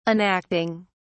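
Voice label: "enacting", British English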